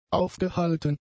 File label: "aufgehalten", German; adjectives